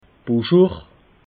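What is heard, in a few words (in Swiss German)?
"buschur", Alsatian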